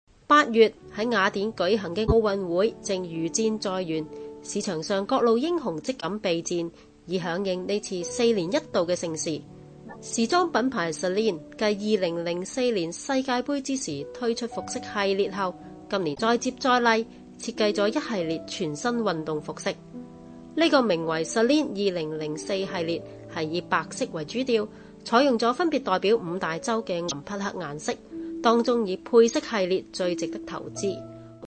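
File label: Cantonese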